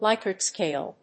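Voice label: "likert-scale", English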